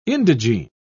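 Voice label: "indigene", British English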